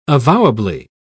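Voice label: "avowably", British English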